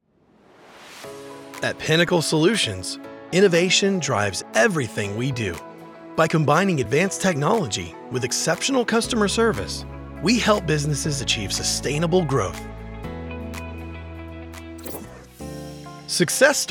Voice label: Corporate Narration, E-Learning, and Telephony-IVR-Phone System